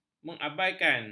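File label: "mengabaikan", Malay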